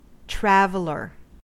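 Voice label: "traveler", US English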